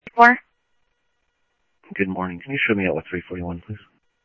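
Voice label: Sheriff West County, scanner audio; ThompsonPeakScot; MCSO - WEST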